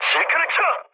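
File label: Korean bailout mood med; War Thunder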